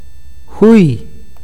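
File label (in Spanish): pequeño, awakateko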